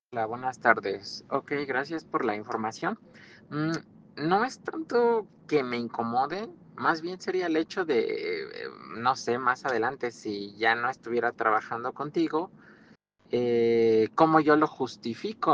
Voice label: whatsapp ptt